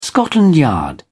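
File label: "scotland yard", British English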